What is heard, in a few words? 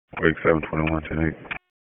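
Sheriff's Office Dispatch 1, p25; wake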